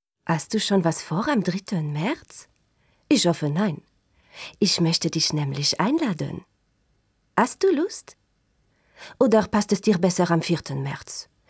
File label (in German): werbung frz akzent erotisch kokett